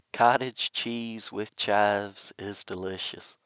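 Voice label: speech male clean; dereverb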